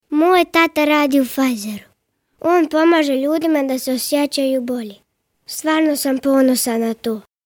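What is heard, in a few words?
Bosnian Croatian boy; children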